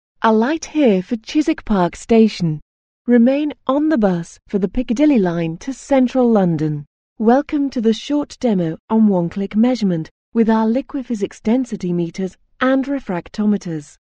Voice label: British F, British English